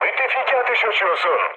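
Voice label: Turkish player friendly fire, mood low, speech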